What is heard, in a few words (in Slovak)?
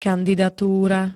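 "kandidatúra", Slovenské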